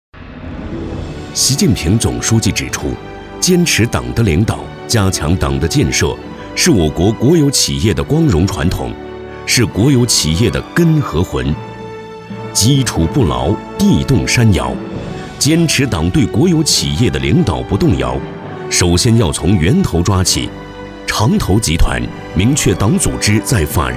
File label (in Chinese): 男 大气专题; 长投